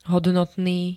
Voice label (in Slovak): "hodnotný", Slovenské